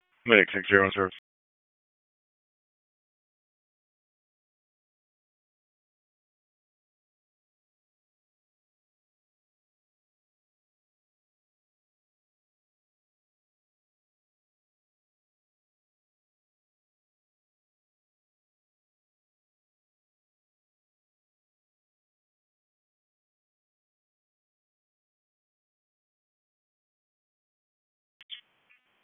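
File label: Wake County EMS Dispatch, p25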